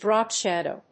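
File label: "drop shadow", English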